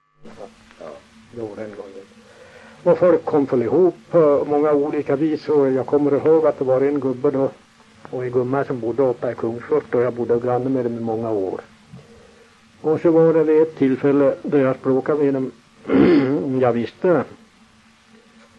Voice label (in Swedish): dialekter; Folkminnen; Svenska sagor